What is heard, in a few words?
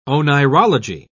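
"oneirology", English, British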